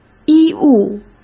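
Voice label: "医务", Mandarin Chinese; chinese-flashcards; hsk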